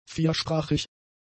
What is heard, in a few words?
"viersprachig", German; adjectives